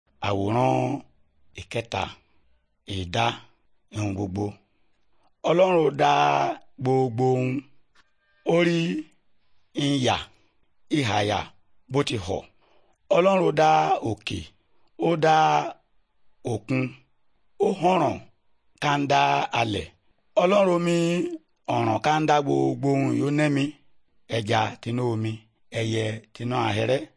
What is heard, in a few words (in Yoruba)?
good news yoruba, bible